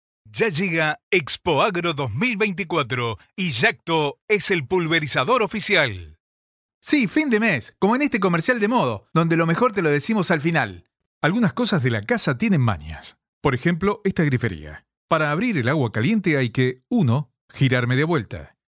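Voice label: Spanish Americas, male, voice over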